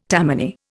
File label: "Tammany", Indian